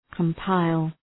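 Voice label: "compile", English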